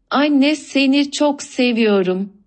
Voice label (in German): Tuerkisch